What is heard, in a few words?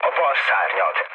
left wing, mood low, speech hun; War Thunder